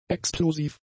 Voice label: "explosiv", German; adjectives